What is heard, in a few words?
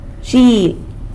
"zhieb" goat; san lucas quiavini